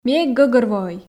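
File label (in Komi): ми эг гӧгӧрвоӧй, Komi, female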